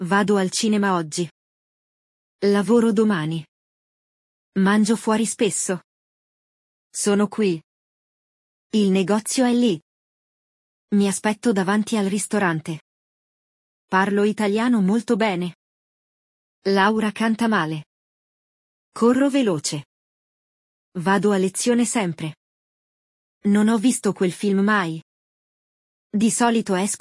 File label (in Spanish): GRAMATICA CLASE ITALIANO